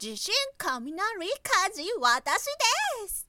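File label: woman, "zisinkaminari"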